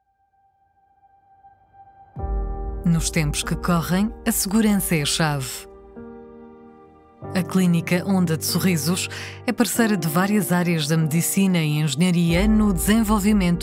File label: portuguese corporate videos